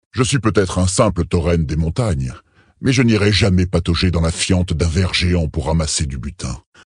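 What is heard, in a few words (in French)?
Male Blague, voix françaises; Tauren Haut Roc; Fiante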